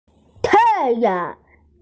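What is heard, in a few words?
"tai4yang2", Mandarin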